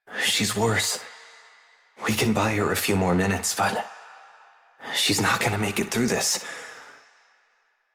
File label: Operator Voice; Warframe; Jade Shadows Quest